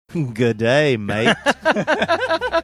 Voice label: g'day mate Australian

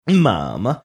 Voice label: funny manner; mama

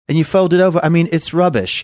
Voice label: saying and you fold it over british accent

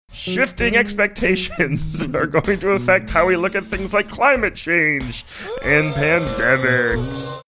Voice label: funny

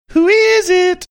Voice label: saying who is it sing songy

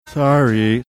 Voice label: saying sorry funny

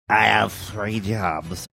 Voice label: saying i have three jobs drunken

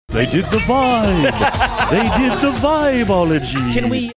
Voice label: dracula like; they did the vibeology

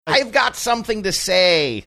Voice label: funny

